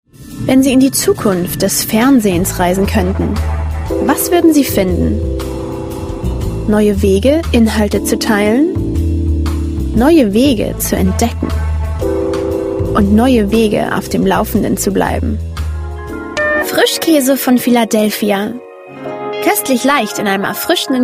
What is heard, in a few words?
germany voice over female commercial